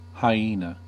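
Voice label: "hyena", US English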